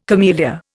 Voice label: "camellia", Indian

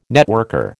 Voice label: "networker", American

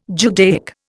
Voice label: "Judaic", Indian

